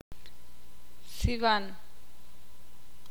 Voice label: piedra, ixil

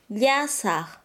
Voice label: "pesado", Quechua Chanka

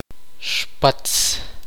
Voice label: "Pasto", Tektiteko

